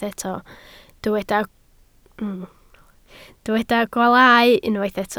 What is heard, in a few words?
"gwaalau", Welsh